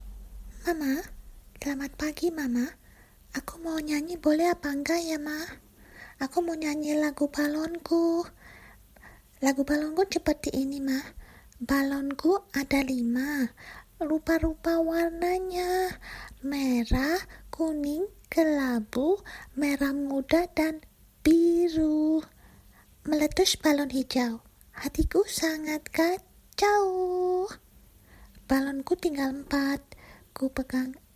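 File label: ID Child Voice, Indonesian; GoLocalise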